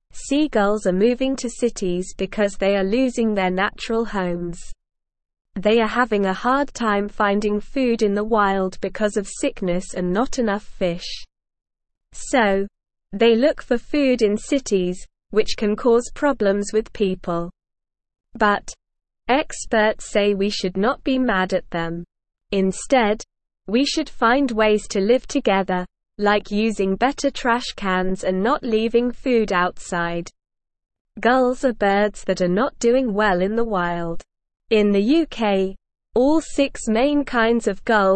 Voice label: English SLOW Reading; Seagulls are moving to cities and need our help